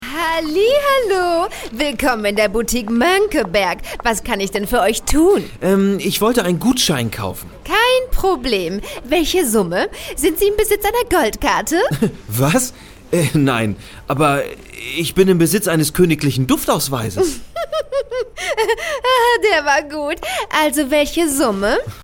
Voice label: Hörspiel

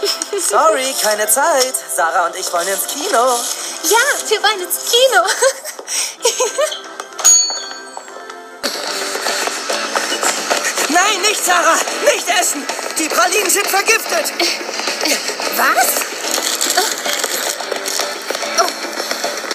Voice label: hörspiel; die drei ausrufungszeichen verliebte weihnachten

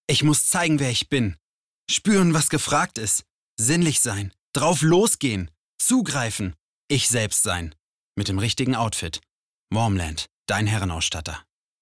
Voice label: Werbung; LOFT VOICES

